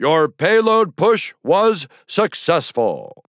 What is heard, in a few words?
Announcer Success Payload Push; Paladins; Bruce